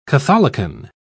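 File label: "catholicon", British English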